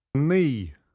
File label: "naoi", Irish